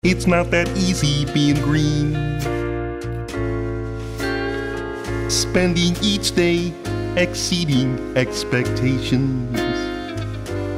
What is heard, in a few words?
impressions